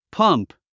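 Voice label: "pump", English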